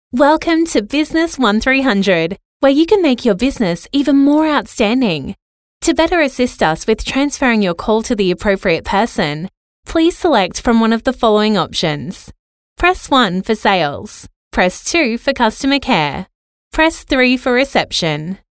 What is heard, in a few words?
Energetic